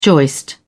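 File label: "joist", British English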